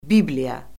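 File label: "bibliya", Azerbaijani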